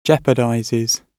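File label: "jeopardizes", British English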